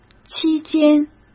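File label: "期间", Mandarin Chinese; hsk; chinese-flashcards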